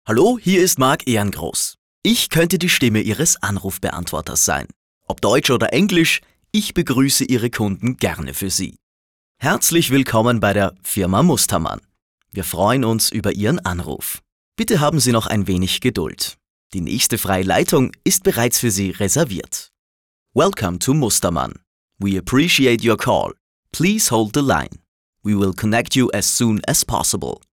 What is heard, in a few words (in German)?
Telefonansagen; Professionelle Sprecher